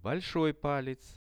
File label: "big palec", Russian; большой палец; Это большой палец.; visual dictionary